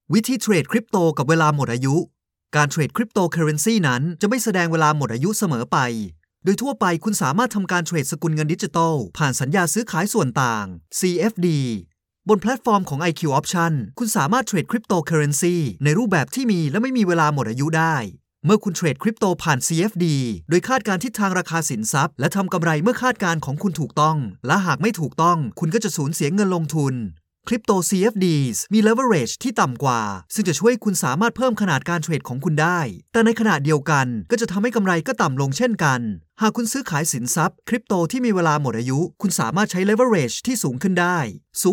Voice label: Thai M Tutorial; voice-over